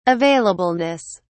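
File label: "availableness", English, British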